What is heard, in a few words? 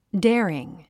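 "daring", English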